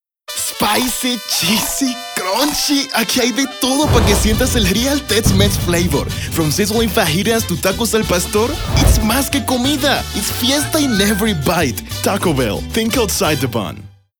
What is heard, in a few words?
TEXMEX, hispanic voice overs